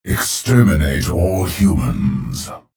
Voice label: chatter positive; clutch; frag